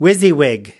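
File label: "wysiwyg", US English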